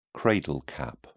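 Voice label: "cradle cap", British English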